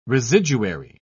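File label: "residuary", British English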